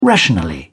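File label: "rationally", British English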